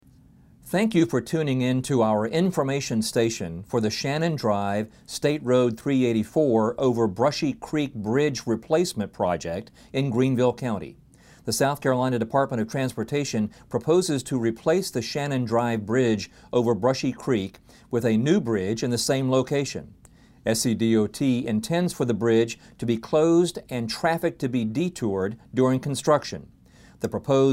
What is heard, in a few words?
Radio Message; SCDOT